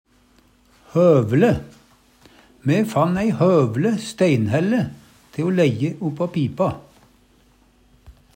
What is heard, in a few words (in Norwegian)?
hovle, Numedalsmål; Me fann ei høvle stæinhælle te o læjje uppå pipa.